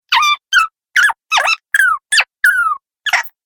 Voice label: Cartoon Chipmunk Sounds, Cartoon Voice Sound Effects